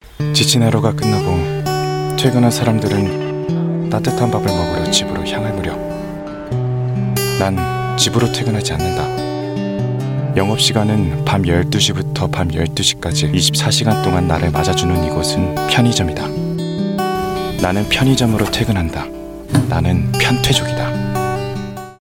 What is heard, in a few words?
Korean Thoughtful Read